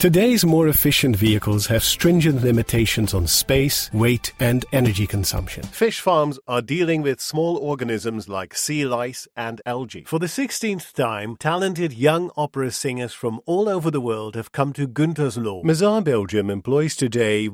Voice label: Euro English Narration